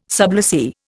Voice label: "sublessee", Indian